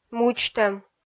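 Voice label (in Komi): "мудзтӧм", Komi, female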